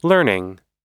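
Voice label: "learning", American English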